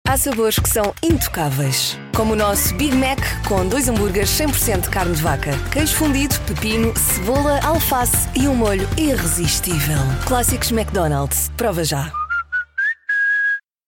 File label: Commercial